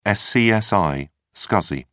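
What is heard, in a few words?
"scsi", English, British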